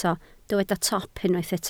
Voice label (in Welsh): "top", Welsh